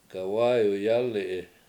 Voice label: horse stands, Washo; gawAyu yAAli7i